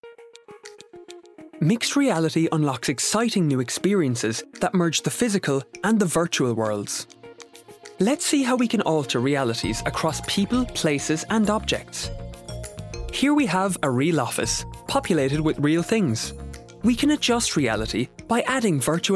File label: Explainer